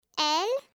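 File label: "L", French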